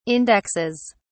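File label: "indexes", British English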